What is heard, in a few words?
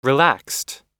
"relaxed", pronunciation